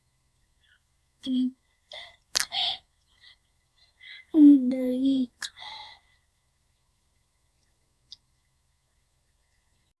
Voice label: a girl whispers, whisper

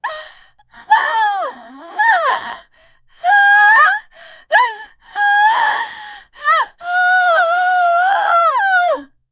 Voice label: fantasy rpg female

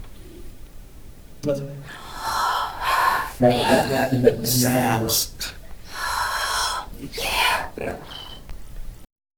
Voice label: people lower volume whisp

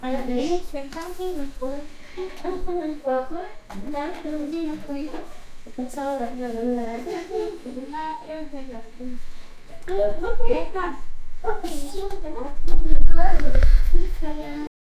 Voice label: 2 girls having a calm; human interaction

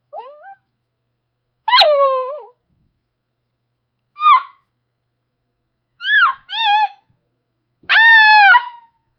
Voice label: teenage girl with a sweet, yip

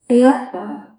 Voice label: female adventurer sound

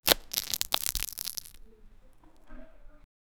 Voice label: someone being broken up, distress

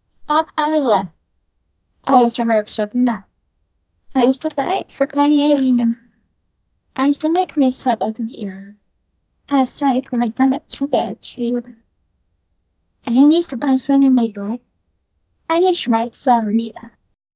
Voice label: child female whats happen; narrative

